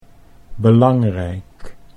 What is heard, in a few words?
"belangrijk", Dutch